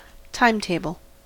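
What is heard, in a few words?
"timetable", US English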